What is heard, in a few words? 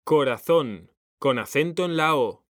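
"CORAZÓN", Spanish, male; mekanta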